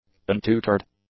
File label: "untutored", english; adjectives